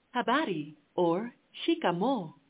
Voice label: Swahili, hello